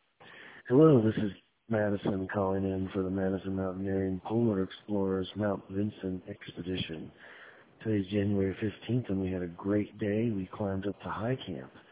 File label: voicemail